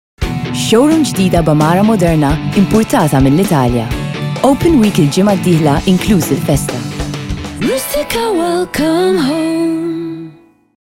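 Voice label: MT F commercial, Maltese, female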